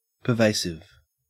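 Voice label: "pervasive", Australian English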